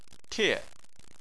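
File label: "tie", Chinese, fourth tone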